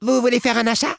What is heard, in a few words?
gnome, male; voulez faire un achat; PhoenixCraft-1.16.5; PhoenixNPCPack; minecraft